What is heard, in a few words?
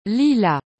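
"lilas", French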